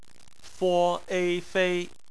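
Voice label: "fei" bopomofo, Chinese; phonetics; bpmf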